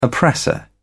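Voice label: "oppressor", British English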